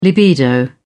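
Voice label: "libido", British English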